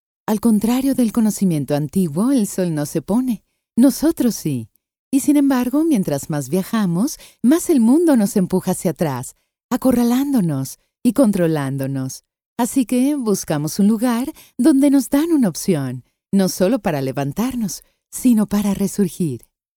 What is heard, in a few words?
Narration